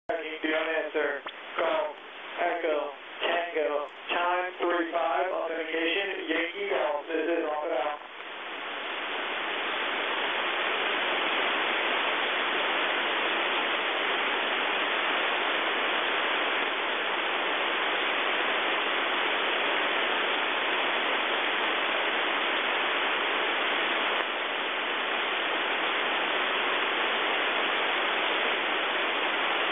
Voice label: 11175 KHZ skyking authentification